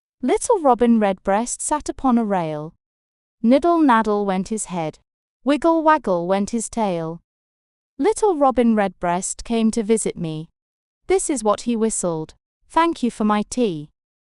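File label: female British, classic rhymes; Little Robin Redbreast Sat Upon a Rail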